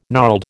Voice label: "gnarled", American

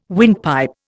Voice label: "windpipe", Indian

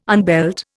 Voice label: "unbelt", Indian

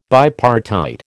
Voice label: "bipartite", American

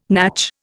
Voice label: "natch", Indian

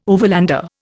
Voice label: "overlander", Indian

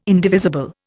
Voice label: "indivisible", Indian

